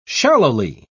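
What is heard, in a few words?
"shallowly", British English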